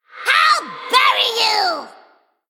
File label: Female Gnome Attack